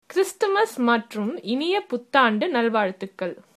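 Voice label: christmas, tamil, female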